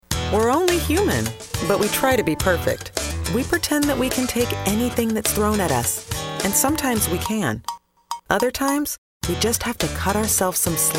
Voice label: Commercial